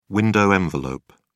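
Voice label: "window envelope", British English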